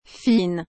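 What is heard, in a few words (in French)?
"fine", French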